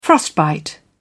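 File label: "frostbite", British English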